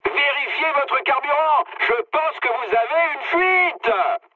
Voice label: tank damaged mood med, speech fr; War Thunder